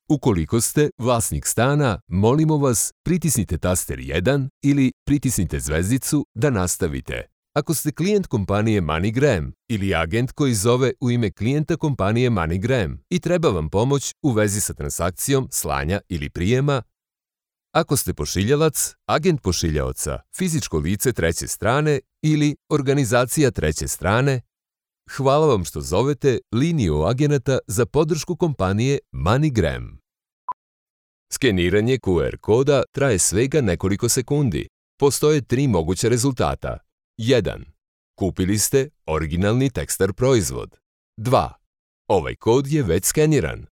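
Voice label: Serbian, male, ivr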